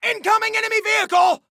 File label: Warn Vehicle, Soldier Male